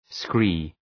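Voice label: "scree" English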